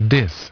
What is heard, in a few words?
dis diss american pronunciation